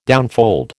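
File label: "downfold", American English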